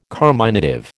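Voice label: "carminative", American English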